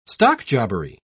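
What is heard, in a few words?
"stockjobbery", English, British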